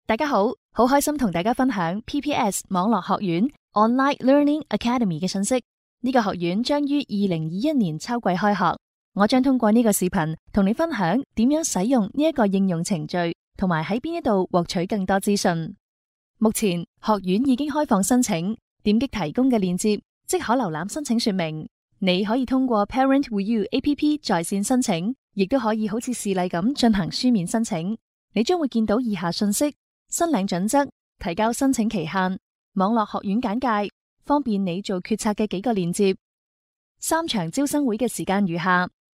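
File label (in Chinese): 粤语高校推介; 鲸读全球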